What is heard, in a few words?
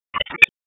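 Dispatch, P25; WSO DISP 1; wake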